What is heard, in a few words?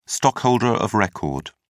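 "stockholder of record", English, British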